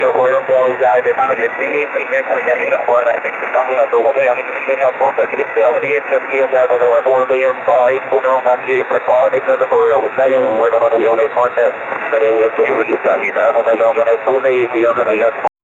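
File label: radio talk sound comes from, dystopian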